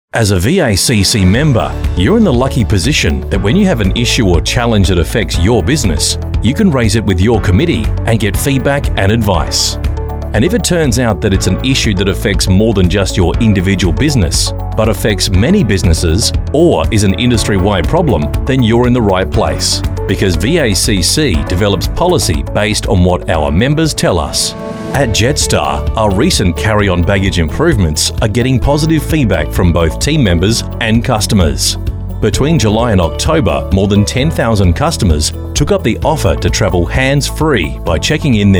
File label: male talent corporate